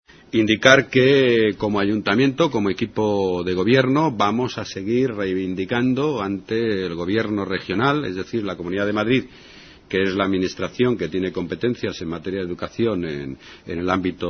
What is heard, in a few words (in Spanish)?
noticias